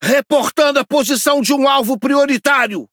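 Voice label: priority target, Brazilian Portuguese; speech; World Of Warships; PT BR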